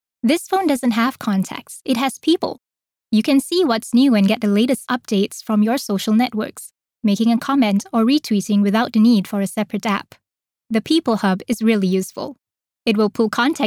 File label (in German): Nachvertonung Film Sprecherin Englisch